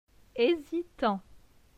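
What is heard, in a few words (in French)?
"Hesitant", French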